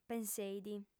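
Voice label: "penseit", Sardinian, female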